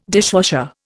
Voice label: "dishwasher", Indian

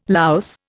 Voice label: "louse", Indian

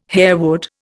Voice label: "harewood", Indian

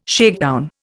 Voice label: "shakedown", Indian

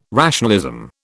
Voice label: "rationalism", British

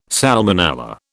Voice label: "salmonella", British

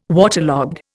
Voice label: "waterlogged", Indian

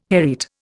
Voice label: "hereat", Indian

